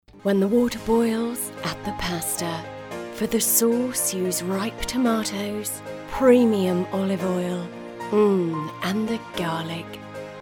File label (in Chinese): 外籍英女 美食诱惑